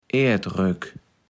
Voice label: "Erdrök", kaevels platt

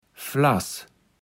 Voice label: "Flaß", Platt

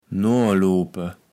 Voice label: "noaloope", kaevels platt

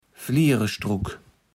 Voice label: "Flierestruck", Platt

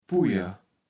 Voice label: "Pujer", Platt

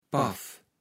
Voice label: "paff", kaevels platt

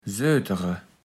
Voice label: "sötere", Platt

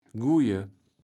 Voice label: "guije", kaevels platt

